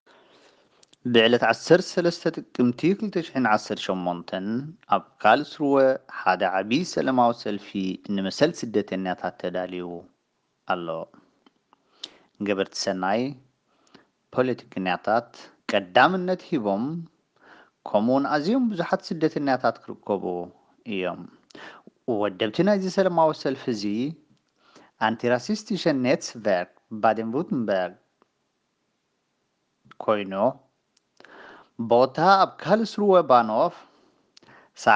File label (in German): Aufruf Tigrinya, Sprachnachrichten